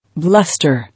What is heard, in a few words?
"Bluster", English